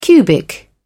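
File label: "cubic", British English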